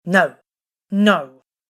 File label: Woman Says No Twice; Recorded Voice Sound Effects